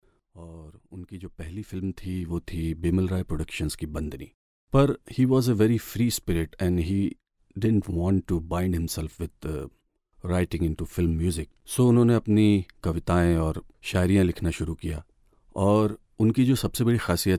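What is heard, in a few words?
Hinglish, India, male; voice over talent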